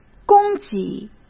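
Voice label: "供给", Mandarin Chinese; hsk; chinese-flashcards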